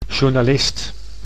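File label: "journalist", Dutch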